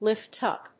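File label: "lift up", English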